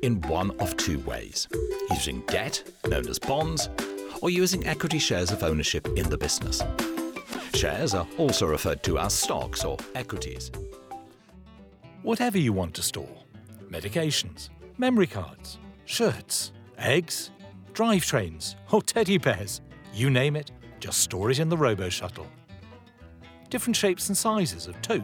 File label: Corporate